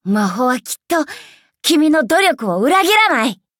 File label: upgrade, ja; cookie run